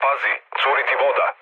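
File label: Serbian damaged water mood low, speech; War Thunder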